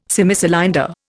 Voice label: "Semicylinder", Indian